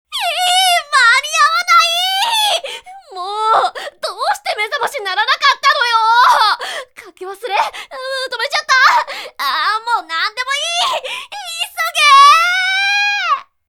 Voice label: girl tikoku